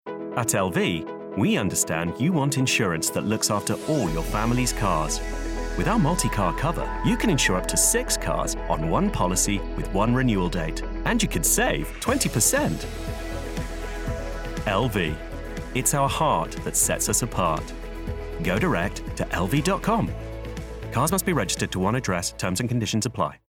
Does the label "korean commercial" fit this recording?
No